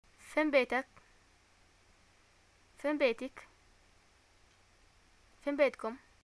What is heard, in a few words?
where do u live, arabic, ye